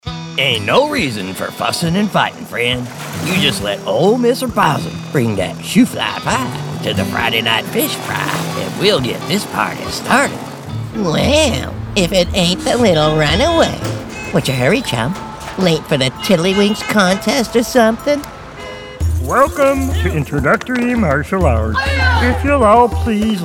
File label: Animation